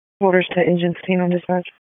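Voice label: Fire Department HQ Dispatch; RFD; wake; p25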